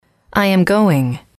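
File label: i am going, English, female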